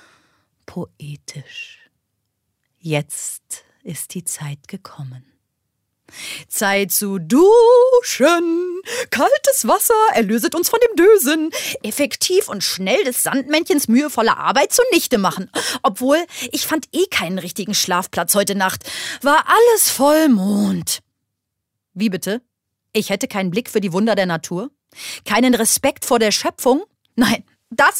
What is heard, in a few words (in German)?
Schauspiel Variabel